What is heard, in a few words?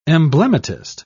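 "emblematist", British English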